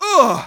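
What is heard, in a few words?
painsharp, German; Medic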